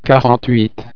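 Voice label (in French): "quarante-huit", French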